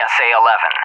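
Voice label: "SA-11", English; USA; AirDefence; DCS; Allied Flight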